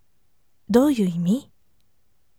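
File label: voice, firm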